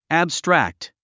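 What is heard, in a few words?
"abstract", English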